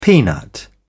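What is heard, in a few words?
"peanut", British English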